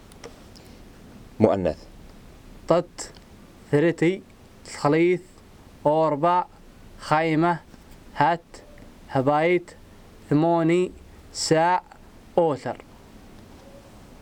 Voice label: counting 1 10 feminine